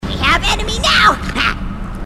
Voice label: grunt crazy; h2dialogue

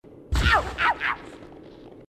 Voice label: ouch ouch, grunts

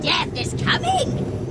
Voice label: grunt; h3dialogue; staten

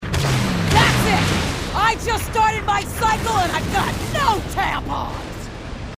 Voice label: female marine

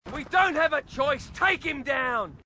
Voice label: marine, aussie; h2dialogue

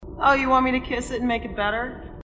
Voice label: marine female, sassy

